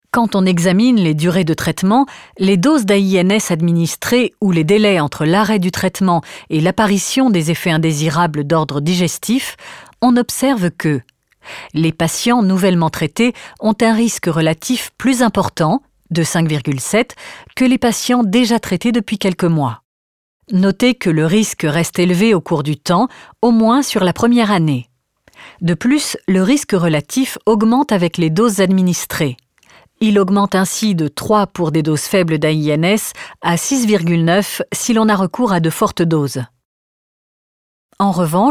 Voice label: eLearning/Training Female French (European)